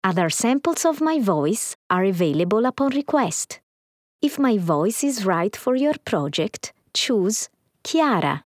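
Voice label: Italian US Accent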